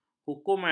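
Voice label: "hukuman", Malay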